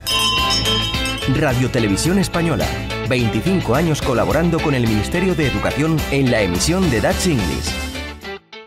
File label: spanish promos